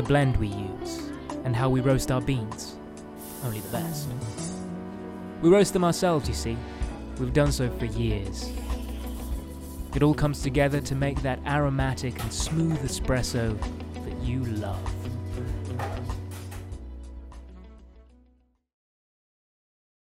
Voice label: male english rp coffee commercial